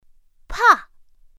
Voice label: "pà", pinyin; pinyin2; pinyinji

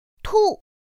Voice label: "tù", pinyin; pinyin2; pinyinji

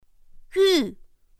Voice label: "yù", pinyin; pinyin2; pinyinji

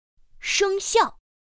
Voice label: "shēngxiào", pinyin; pinyin2; pinyinji

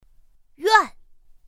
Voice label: "yuàn", pinyin; pinyin2; pinyinji

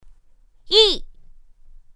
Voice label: "yì", pinyin; pinyin2; pinyinji

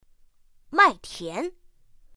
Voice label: "màitián", pinyin; pinyin2; pinyinji

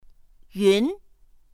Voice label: "yún", pinyin; pinyin2; pinyinji

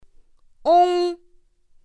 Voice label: "ong", pinyin; pinyin2; pinyinji

